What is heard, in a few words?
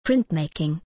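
"printmaking", English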